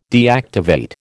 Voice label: "deactivate", American